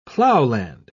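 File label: "plowland", British English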